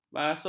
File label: "basut", ms